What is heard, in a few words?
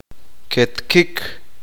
uspanteko; redondo circular